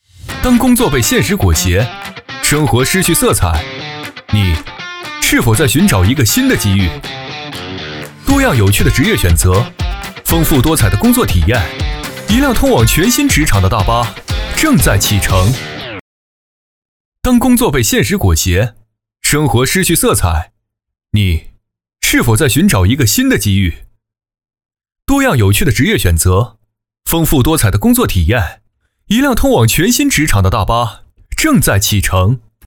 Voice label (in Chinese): 男 年轻活力; 当工作被现实裹挟